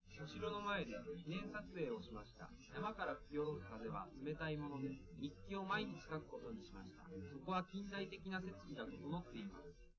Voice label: male live rec; SiSEC10; underdetermined